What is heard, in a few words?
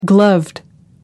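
"gloved", English, British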